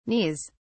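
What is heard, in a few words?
"knees", English, British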